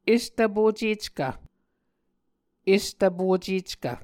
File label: "estvpoceckv", Muscogee